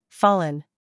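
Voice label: "scandal", American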